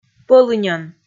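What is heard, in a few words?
"пӧлыньӧн", Komi, female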